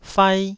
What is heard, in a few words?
"fai1", taiwanese syllables